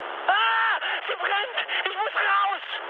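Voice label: German on fire mood med, speech; War Thunder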